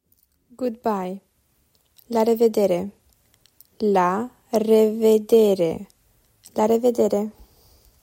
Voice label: "Good bye", Romanian

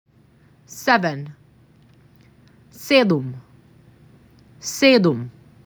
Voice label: MAC 6, macedonian phrasebook

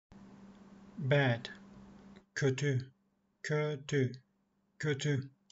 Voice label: bad, turkish; the talking turkish phrasebook

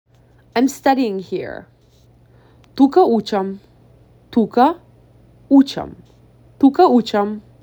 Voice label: I am studying here HIGH SCHOOL, Macedonian; the talking macedonian phrasebook

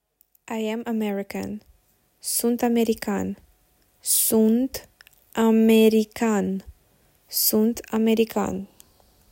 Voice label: I am American, romanian

